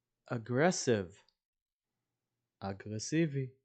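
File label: "aggressive" English, "אגרסיבי" Hebrew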